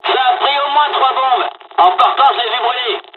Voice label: dialog, dialogs french; War Thunder; midway